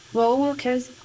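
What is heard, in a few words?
voice acting, es, female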